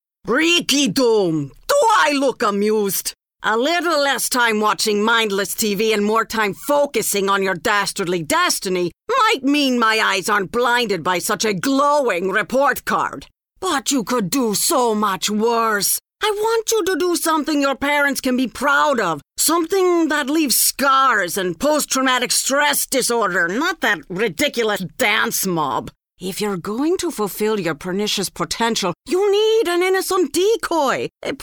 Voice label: Animation